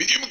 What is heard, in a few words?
right Face Turn Fast, jp; HeavenStudio; marching Orders